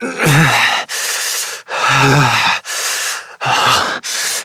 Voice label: Operator Voice